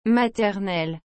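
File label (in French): "maternelle", French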